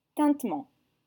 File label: "tintement", French